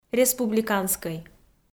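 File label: "республиканскӧй", Komi, female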